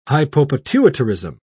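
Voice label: "hypopituitarism", British English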